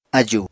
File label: "ájùu", Apatani